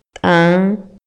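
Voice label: Maya, male; HABLA Y LENGUAJE; INALI; MayaYuc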